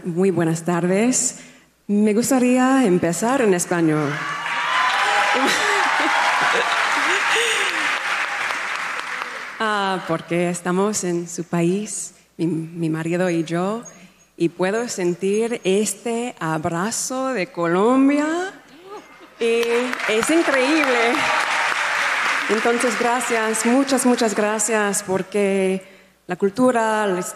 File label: SPEAKING SPANISH; MEGHAN MARKLE